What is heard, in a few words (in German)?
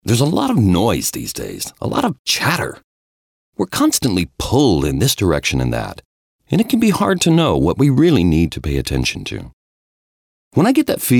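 US Erzählung, englisch; sprecher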